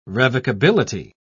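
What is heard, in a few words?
"revocability", British English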